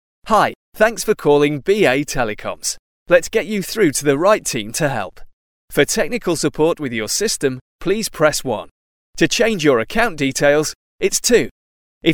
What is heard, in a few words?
Northern accent